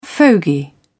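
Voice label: "fogey", British English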